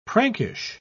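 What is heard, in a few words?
"prankish", English, British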